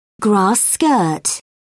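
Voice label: "grass skirt", British English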